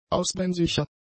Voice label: "ausbrennsicher", German; adjectives

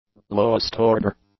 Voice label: "lowest-order", English; adjectives

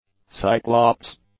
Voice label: "cyclops", English

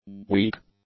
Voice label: "weak", English; adjectives

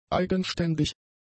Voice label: "eigenständig", german; adjectives

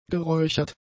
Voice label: "geräuchert", German; adjectives

